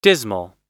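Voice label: "dismal", American English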